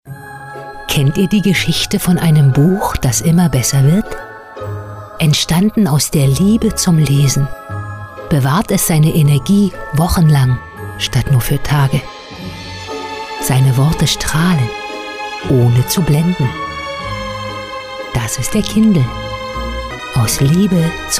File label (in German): Werbung; Kindl